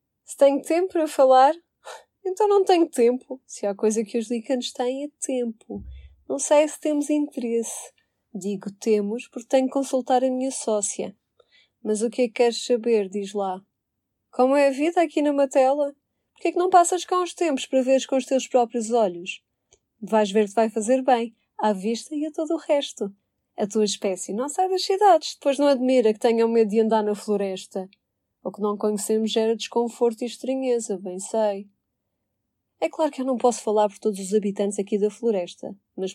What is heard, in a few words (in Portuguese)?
liquen locucao